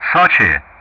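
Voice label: "Sochi", English; Ka-50; Player Callsign; DCS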